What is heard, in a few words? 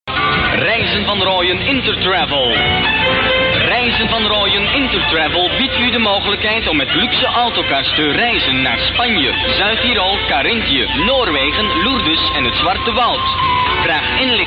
commercial